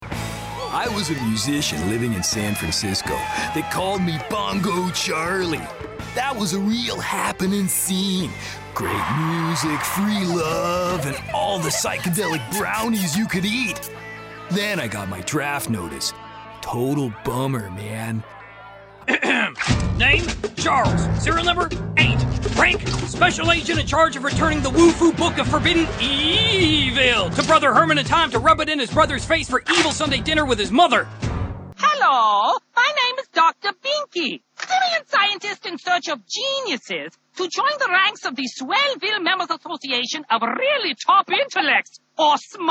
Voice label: male canadian multiple accents and characters animation compilation